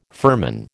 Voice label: "firman", American